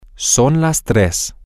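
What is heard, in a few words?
its 300, Spanish, male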